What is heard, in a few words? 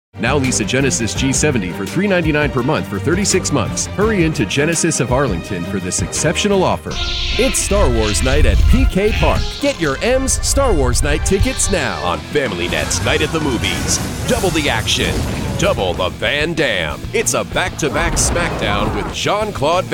Commercial Voice Over